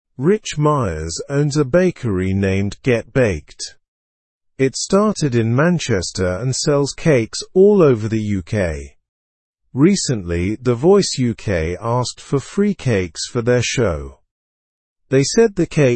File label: english through news lower intermediate; bakery angered by the voice s freebie request